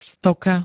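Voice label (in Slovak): "stoka", Slovak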